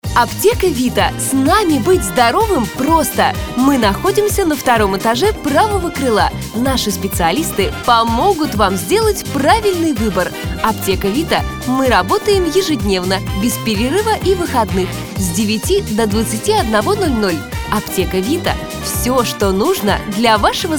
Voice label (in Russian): Аудиореклама для ТЦ; Аптека Вита; audioadvertising